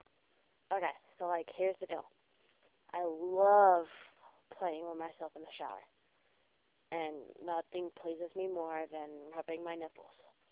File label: Voice msg